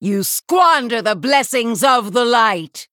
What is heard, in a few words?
Female Human Start; Yrel